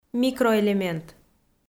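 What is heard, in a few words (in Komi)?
"микроэлемент", Komi, female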